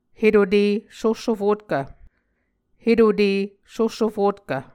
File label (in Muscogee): "hetute sohsofotkv", Muscogee